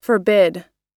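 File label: "forbid", English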